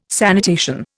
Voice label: "sanitation", Indian

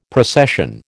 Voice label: "procession", American

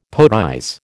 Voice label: "poetize", American

